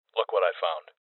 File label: Loot Spotted Male; Voices; Rebel Cops